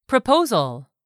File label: "proposal", English; 3000 words